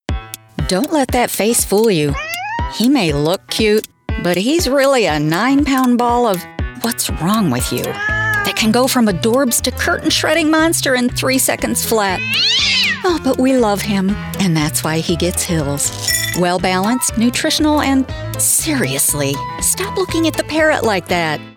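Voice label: voice over demos; Hills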